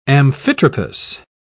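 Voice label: "amphitropous", British English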